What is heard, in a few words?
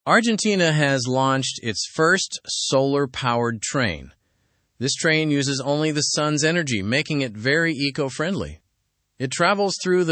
english news lower intermediate; sustainable travel on argentina s solar train